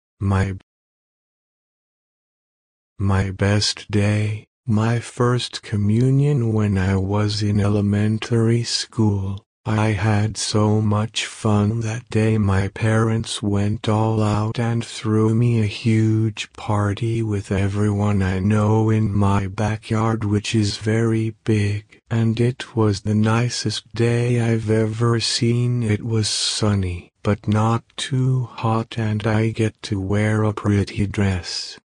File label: Recorded Eaves dropping 4 Secrets